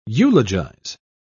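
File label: "eulogise", British English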